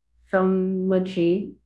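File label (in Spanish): Voice Acting es F, Spanish, female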